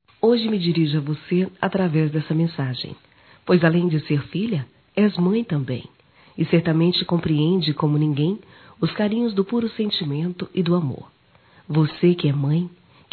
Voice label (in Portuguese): Mãe solteira f, Telemensagens; Dia das Maes; Telemensagens 2017